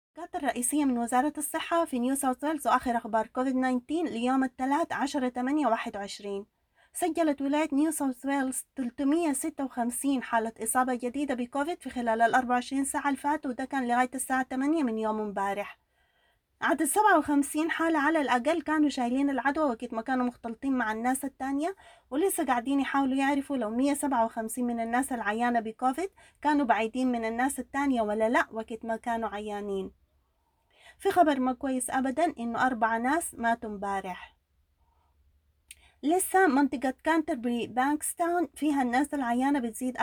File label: sudanese; covid-19 coronavirus; covid-19 daily key points